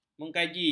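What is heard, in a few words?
"menggaji", Malay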